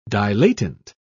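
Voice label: "dilatant", British English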